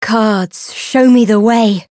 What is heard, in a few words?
variable chant; radica